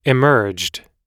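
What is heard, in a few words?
"emerged", American English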